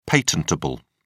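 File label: "patentable", British English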